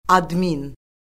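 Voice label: "admin", Azerbaijani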